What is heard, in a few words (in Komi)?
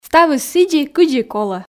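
ставыс сідзи кыдзи и колӧ, Komi, female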